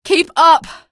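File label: citizen buddy killed, female; source engine; episodic; episode 1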